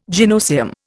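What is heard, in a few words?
"gynoecium", Indian English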